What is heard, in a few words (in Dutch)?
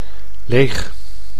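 "leeg", Dutch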